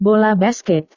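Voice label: "bola basket", Indonesian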